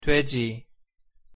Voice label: "돼지", Korean